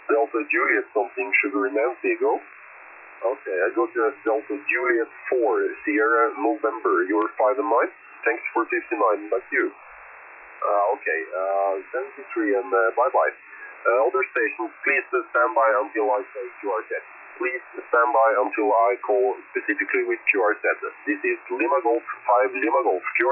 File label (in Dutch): zendamateurs USB